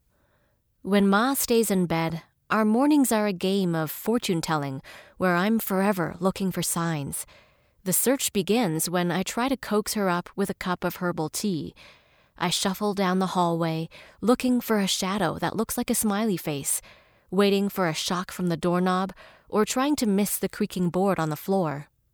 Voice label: Home Studio Narration Sample

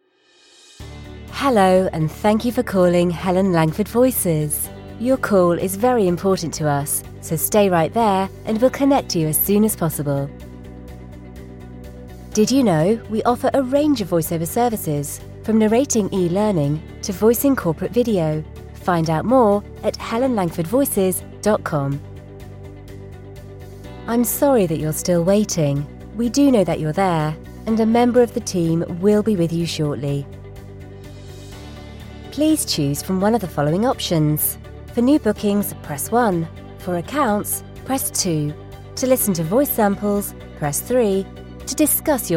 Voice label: on hold IVR

